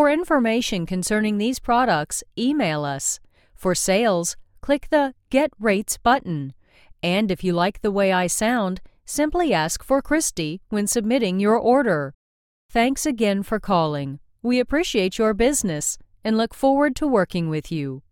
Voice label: Voice Sample, onhold